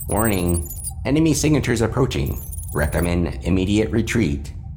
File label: Military AI precise clipped cold